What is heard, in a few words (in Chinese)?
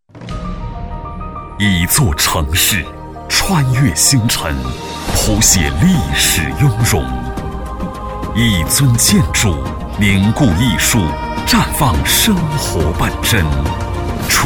男 广告配音 厚重大气; 金源酒店